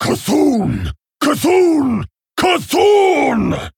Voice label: Male Panderan Play

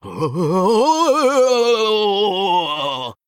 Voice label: Male Murloc Play

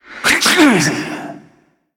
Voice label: Androgynous Faceless Death

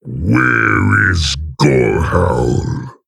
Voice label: Male Orc; Grommash; Jaina

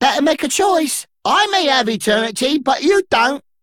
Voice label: Male Dredger Idle

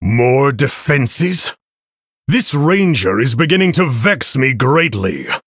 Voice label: Male Human Boss Attacks Special; Arthas

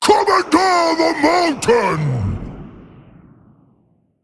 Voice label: Male Elemental Play

